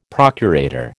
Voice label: "procurator", American